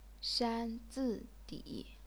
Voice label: "shan1 zi4 di3", chinese pinyin